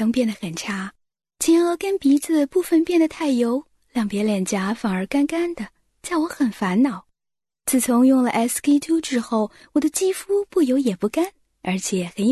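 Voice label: chinese mandarin female commercial; voice demos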